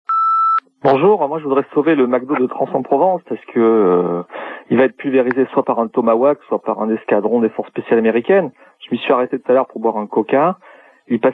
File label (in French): SOS Mc Do repondeur